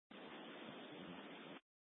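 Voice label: Fire Dispatch, Scanner audio recording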